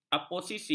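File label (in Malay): "aposisi", Malay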